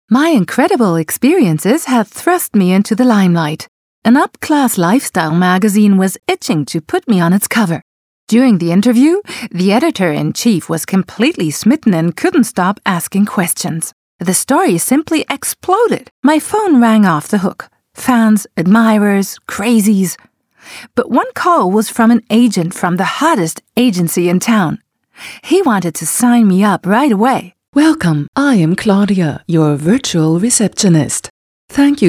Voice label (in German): Englisch Hoerspiel Werbung Image